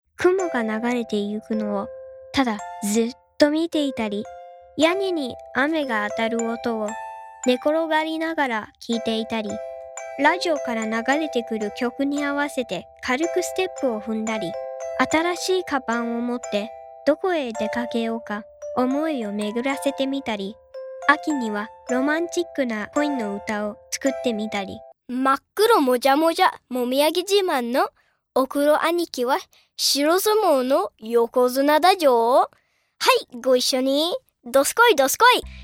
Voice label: Japanese

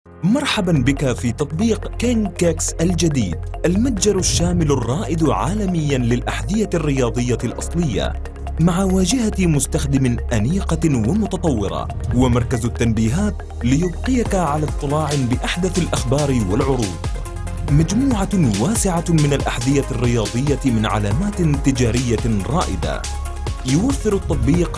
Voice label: Explainer voiceover; king kicks store

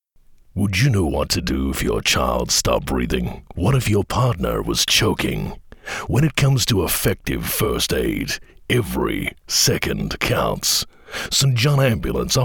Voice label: Mid Atlantic

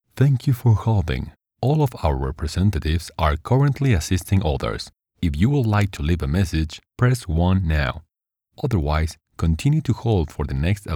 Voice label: IVR English

